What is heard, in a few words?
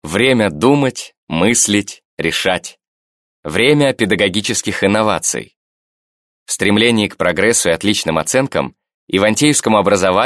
russian male